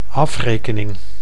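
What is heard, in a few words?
"afrekening", Dutch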